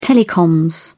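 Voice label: "telecoms", British English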